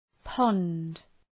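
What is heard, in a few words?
"pond", English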